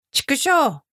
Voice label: "chikushou", Japanese

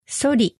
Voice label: "sori", Japanese; sled

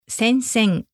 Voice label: "sensen", Japanese; war front

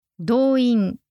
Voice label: "douin", Japanese; mobilization

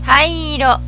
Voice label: "haiiro", Japanese

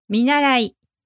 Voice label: "minarai", Japanese; apprentice

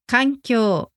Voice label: "kankyou", Japanese; environment circumstance

